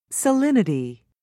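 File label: "salinity", British English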